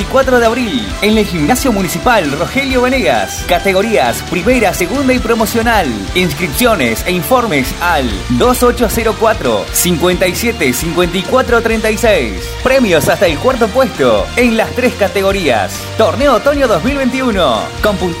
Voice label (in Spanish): spot tenis de mesa